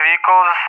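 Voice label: "vehicles", English, Russia; Target; DCS; Allied Flight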